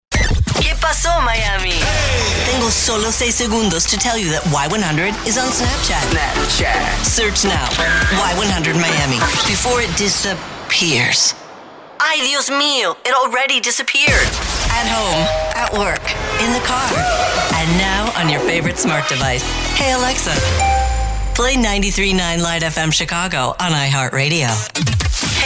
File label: radio imaging women